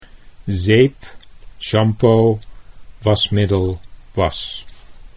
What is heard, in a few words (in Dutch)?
"zeep shampoo", Dutch